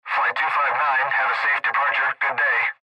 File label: Airtraffic Control Departure